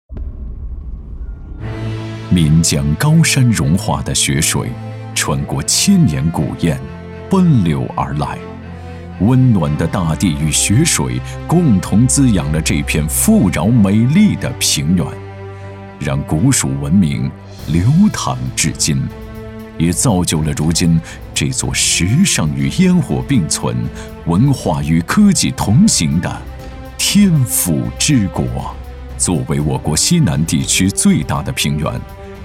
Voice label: 男 深沉讲述 宣传片

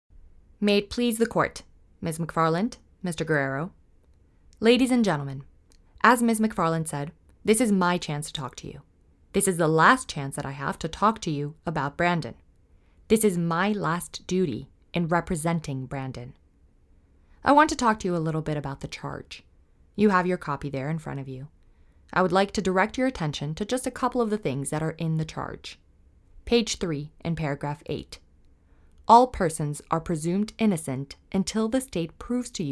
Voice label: 素人陈述

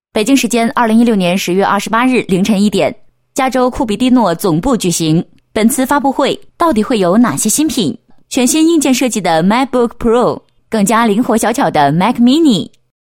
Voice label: 女, 品牌宣传; 中关村在线直播

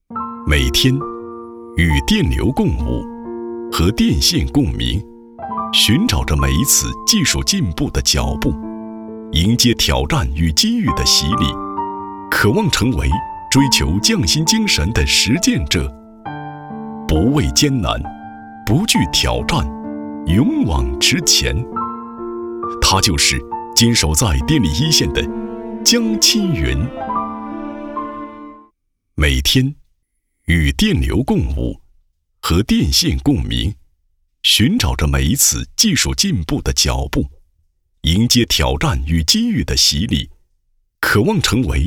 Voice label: 男 人物介绍类 国家电网职工

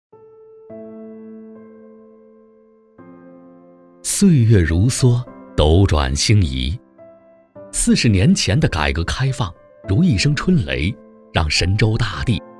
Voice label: 男 宣传片; 光耀华北; 大同电厂宣传片

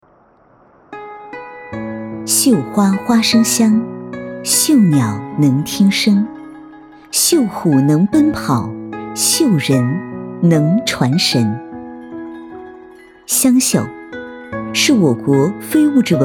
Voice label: 纪录片 湘绣 申遗

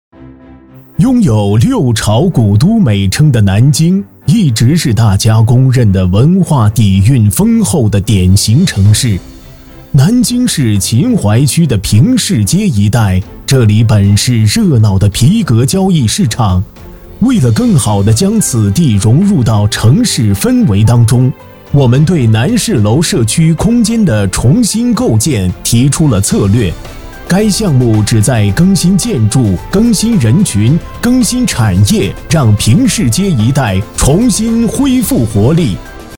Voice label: 男 专题 政企类 南京

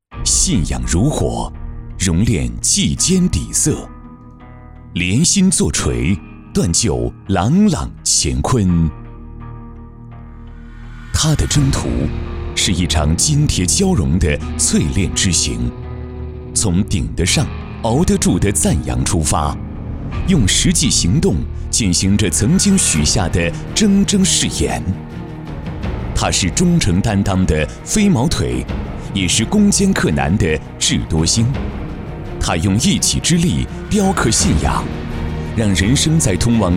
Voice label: 男 人物专题

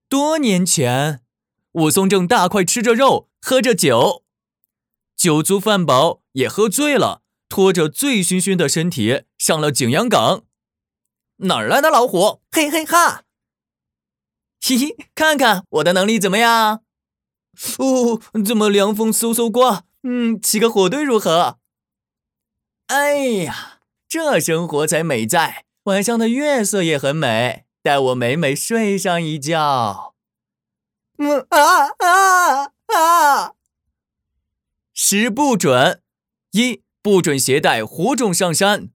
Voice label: 男 角色 俏皮可爱少年音 防火宣传

